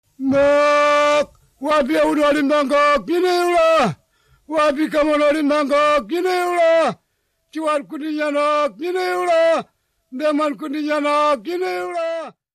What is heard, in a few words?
male